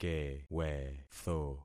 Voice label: "kewetho", concat syllables; Affixing experiment